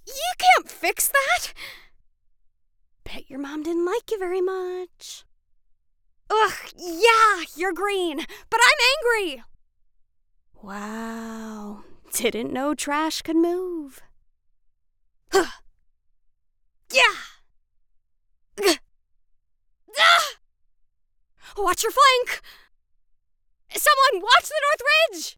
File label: Recruit Female